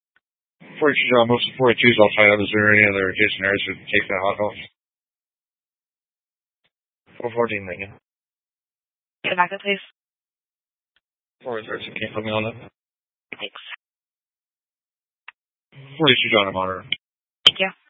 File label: Police Dispatch